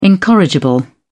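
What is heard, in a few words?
"incorrigible", English, British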